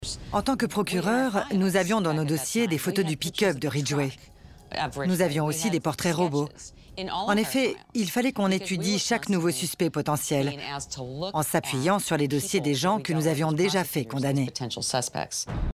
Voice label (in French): voix off; crimes; green river